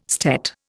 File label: "stet", Indian